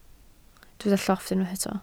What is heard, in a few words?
"llofft", Welsh